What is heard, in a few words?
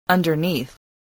"underneath", English spelling voice